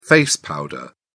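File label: "face powder", British English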